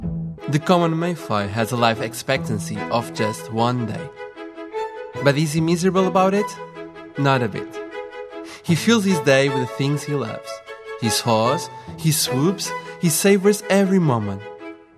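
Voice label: Portuguese English; Portuguese, Male, 20s-30s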